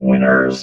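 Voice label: "winners", robot voice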